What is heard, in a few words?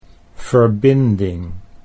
"verbinding", Dutch